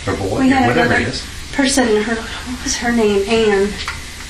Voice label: whisper between person and what was her name; marland st